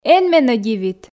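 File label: эн менӧ дивит, Komi, female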